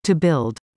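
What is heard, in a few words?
"to build", English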